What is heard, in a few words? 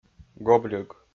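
"гобльӧг", Komi-Zyrian, male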